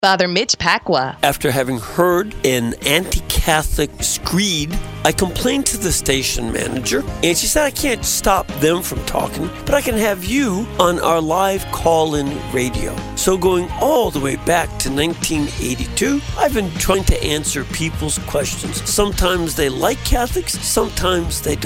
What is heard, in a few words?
Network Promo; Open Line Wed Fr. Mitch